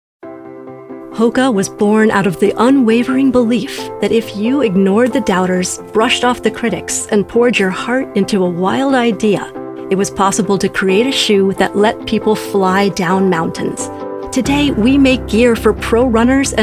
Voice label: Corporate